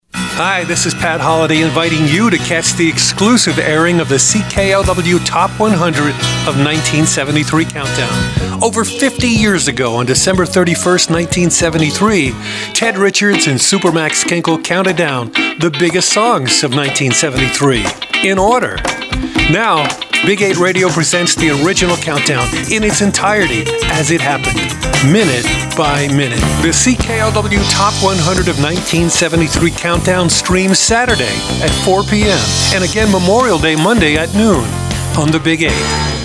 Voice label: Promo

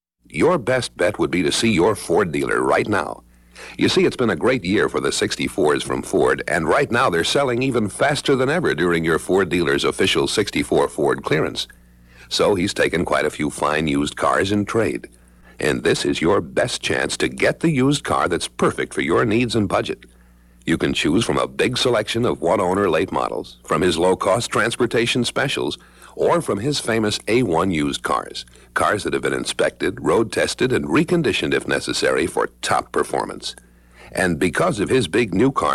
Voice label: Radio Spot; Ford; 1964

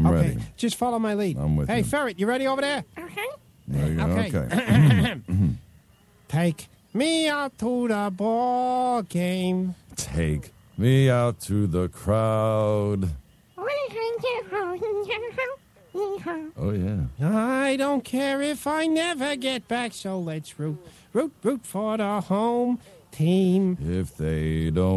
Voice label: Radio Commercials Beer; Budweiser; 1999

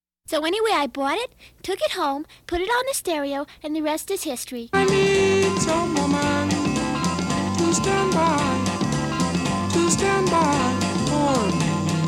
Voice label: Radio Commercials LP; 1969

